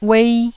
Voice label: "uei1", taiwanese